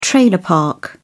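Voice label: "trailer park", British English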